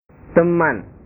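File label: "teman", Indonesian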